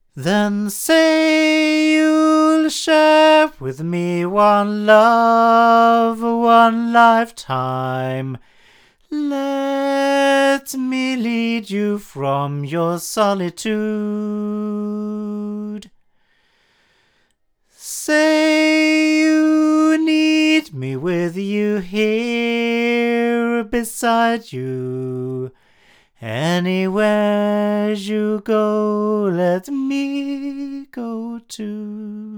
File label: CV-12 tube 8 male; Avant